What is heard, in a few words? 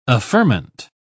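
"affirmant", English, uk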